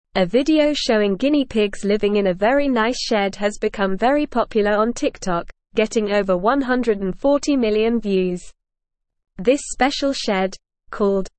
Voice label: English Newsroom Lower Intermediate NORMAL Reading; Guinea Pigs Live in Fancy House with Room Service